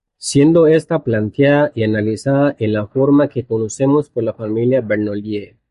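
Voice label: "conocemos", Spanish; mozilla voice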